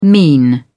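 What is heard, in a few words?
"mean", British English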